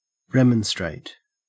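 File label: "remonstrate", Australian English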